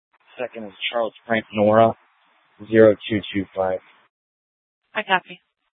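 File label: police dispatch, scanner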